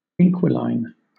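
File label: "inquiline", British English; pronunciations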